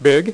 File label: "BIG", Dealer's Speech; PowerPOKER Demo